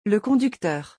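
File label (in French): "le conducteur", French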